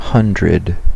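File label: "hundred", US English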